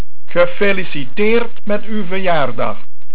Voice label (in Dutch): "Verjaardag", Dutch